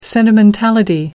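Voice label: "sentimentality", British English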